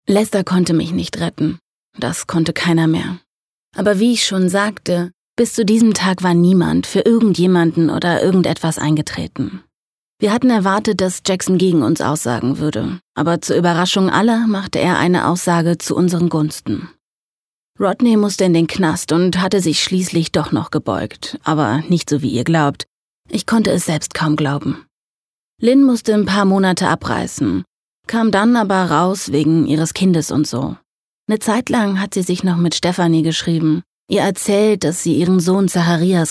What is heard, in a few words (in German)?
erzählung